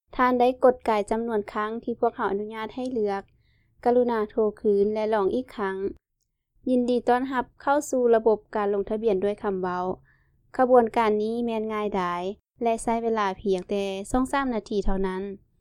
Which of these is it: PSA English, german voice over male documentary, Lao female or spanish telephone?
Lao female